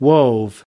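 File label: "wove", US English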